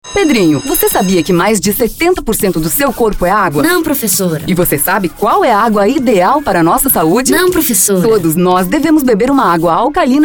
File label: Spot